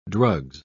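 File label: "drugs", British English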